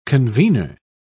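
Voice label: "convener", British English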